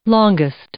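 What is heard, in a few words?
"longest", English, female; adjective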